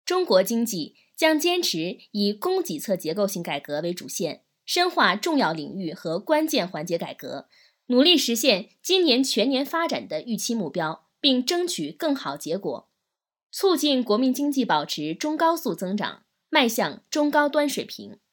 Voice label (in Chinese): 新闻类配音